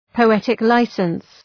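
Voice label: "poetic licence", English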